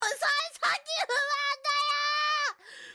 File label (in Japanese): （心配）; 幸先不安だよ～